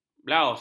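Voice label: "blaus", Malay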